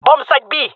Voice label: jungle male agents